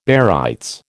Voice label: "barytes", American